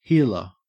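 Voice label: "heeler", Australian English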